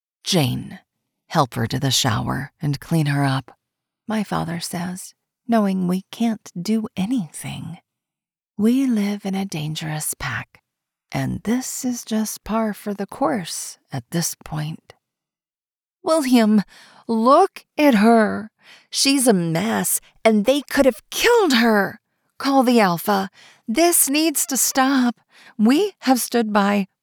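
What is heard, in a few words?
male female dialogue, romance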